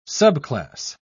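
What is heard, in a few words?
"subclass", British English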